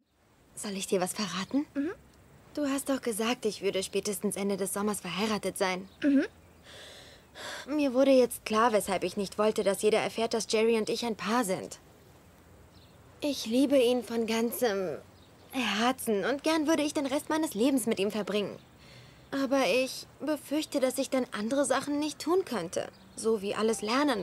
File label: American Girl